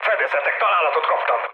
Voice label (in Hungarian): aircraft damaged mood med, hun; War Thunder